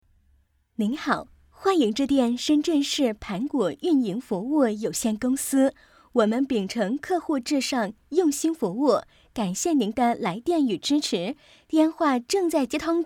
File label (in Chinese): 国语粤语彩铃, 女